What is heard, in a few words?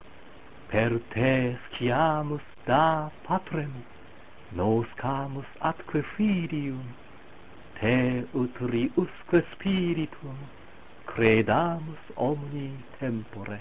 Veni recitatio, latin